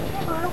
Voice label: hey mom lofi